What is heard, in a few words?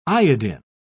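"iodin", British English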